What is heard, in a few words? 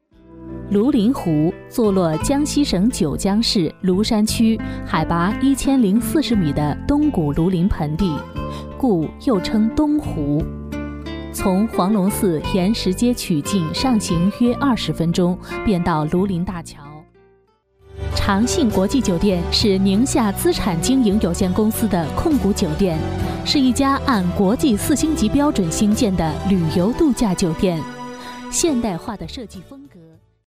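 Mandarin Chinese, female, voice over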